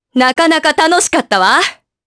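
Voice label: Vox Victory, voices, Japanese; Naila; kings raid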